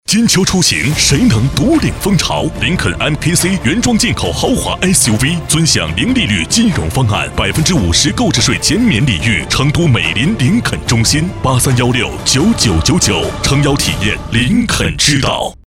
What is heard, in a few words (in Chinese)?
豪华 品质 广告; 林肯MKC15S